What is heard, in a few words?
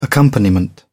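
"accompaniment", British English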